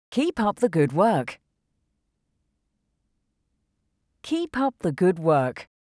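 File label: Keep up the good work, English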